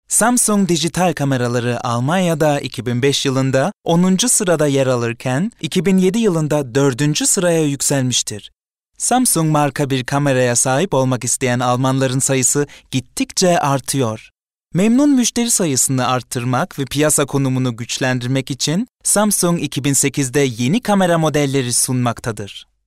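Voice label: Turkish, Werbung Neutral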